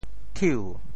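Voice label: "thiu2", Teochew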